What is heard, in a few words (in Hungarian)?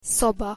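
"szoba" Hungarian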